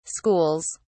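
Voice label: "schools", British English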